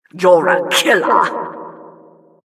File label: announcer, vo, killstreak